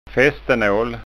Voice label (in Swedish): "fastenal", skanska ord